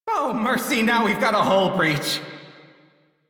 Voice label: Crew See Breach, Male, en; Dialog; Warframe; Railjack Crew; Perrin Sequence